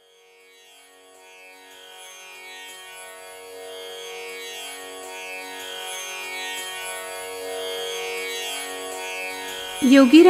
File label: Announcement